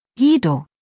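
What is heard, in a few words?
"Guido", German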